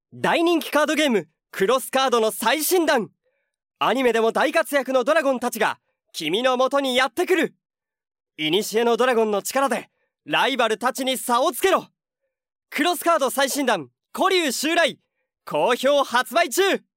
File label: Voiceover